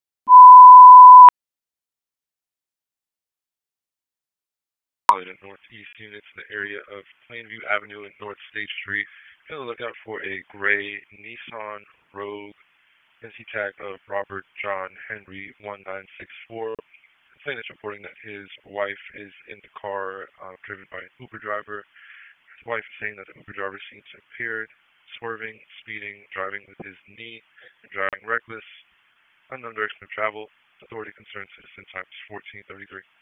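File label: Police District Dispatch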